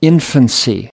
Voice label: "infancy", us